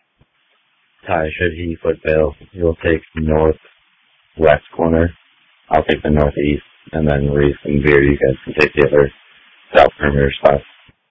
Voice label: Police Tactical Encrypted